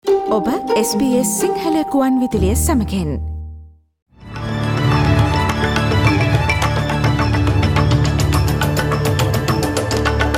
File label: sinhalese; SBS Sinhala Radio News on 04 July 2022: Australia has pledged further support for Ukraine amid NSW flooding